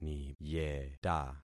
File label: "niyeta", concat syllables; Affixing experiment